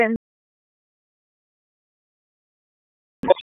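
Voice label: Raleigh Police Northeast District Tactical, p25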